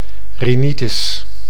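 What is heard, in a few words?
"rinitis", Dutch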